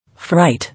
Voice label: "Fright", English